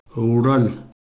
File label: "wral", Pashto